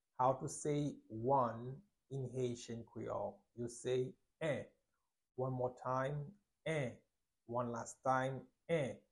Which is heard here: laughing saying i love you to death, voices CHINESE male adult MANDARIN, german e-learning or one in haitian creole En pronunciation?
one in haitian creole En pronunciation